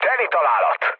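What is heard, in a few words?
Hungarian bomb success mood low, speech; War Thunder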